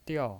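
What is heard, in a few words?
"diao" Mandarin Chinese, fourth tone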